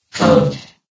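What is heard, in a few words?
"code", female; vox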